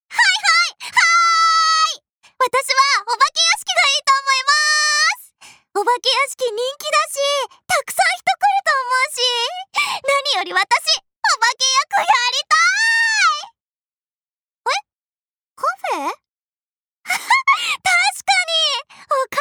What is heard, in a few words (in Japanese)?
アニメ